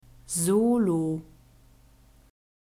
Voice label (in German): "solo", German